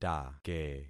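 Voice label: "take", concatenated syllables; Affixing experiment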